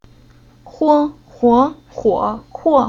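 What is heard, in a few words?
"Huo", Chinese syllable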